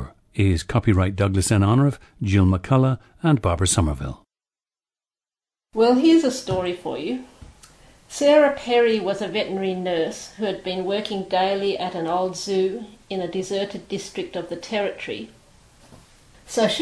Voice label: australia